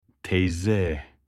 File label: aunt in turkish